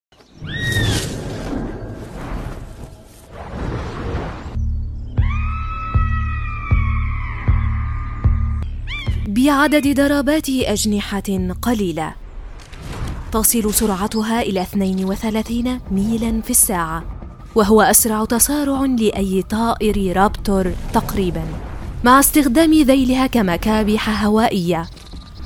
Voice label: وثائقي; طائر الرابتور

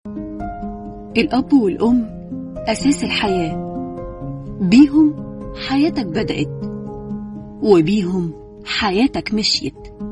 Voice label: اعلان; مؤسسة أمي وأبي

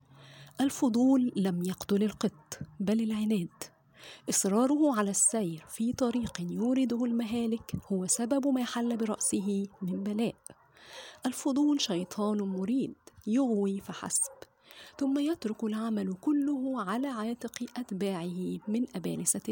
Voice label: قراءة صوتية رواية; ثاني أكسيد الحب

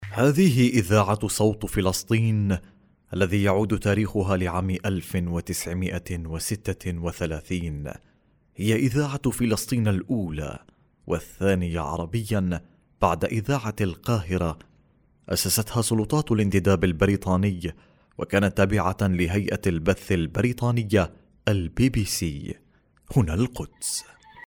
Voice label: عينة وثائقي

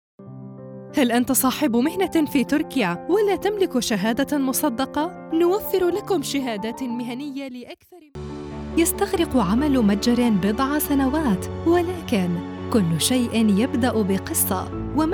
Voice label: عينة أعمال بالفصحى

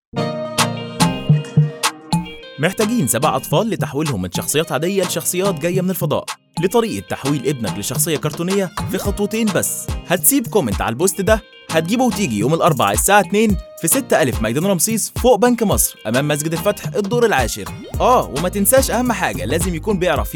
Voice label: برومو مسابقة

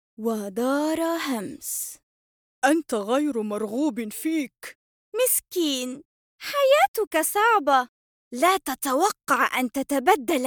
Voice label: قصة أطفال

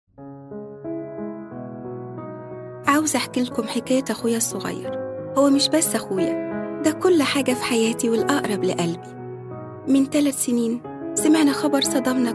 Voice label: اعلان عامية مصرية; مستشفة 57 لزرع النخاع

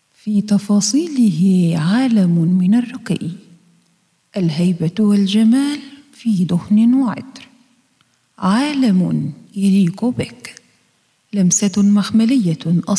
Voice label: إعلان العربية للعود

